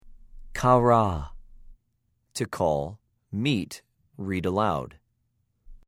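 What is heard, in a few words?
"קָרָא", Hebrew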